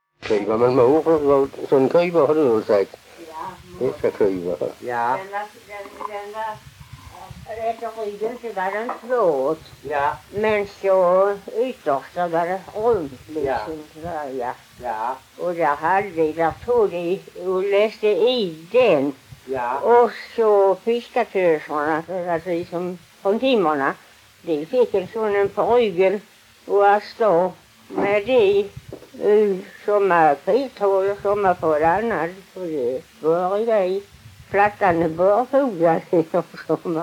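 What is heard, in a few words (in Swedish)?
Allerum Skåne, dialekter; Folkminnen; Svenska sagor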